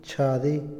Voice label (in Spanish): "estudiar", Otomí, hombre; INALI